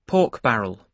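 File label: "pork barrel", British English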